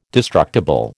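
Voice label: "destructible", American English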